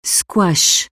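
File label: "squash", French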